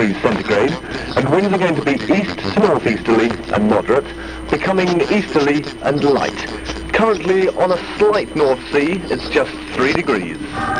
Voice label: roadshow ad, weather; caroline 963am